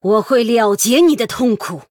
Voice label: Female Human Start; 对决模式; 渗透者莉莉安; 对战：血骨傀儡; Start:Rattlegore